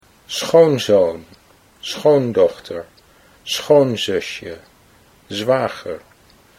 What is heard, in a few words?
"schoonzoon schoondochter", Dutch